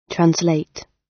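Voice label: "translate", British; Language, linguistics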